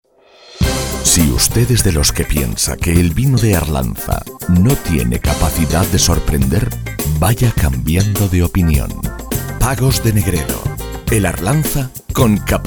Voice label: Radio